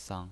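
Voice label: "sang", Mandarin Chinese pinyin voice, neutral tone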